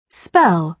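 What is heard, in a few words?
"spell", British English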